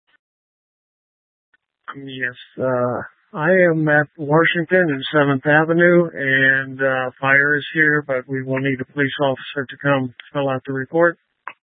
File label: Phx PD Dispatch, scanner